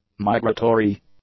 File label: "migratory", english; adjectives